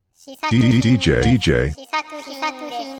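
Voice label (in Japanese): DJの言い方（男性）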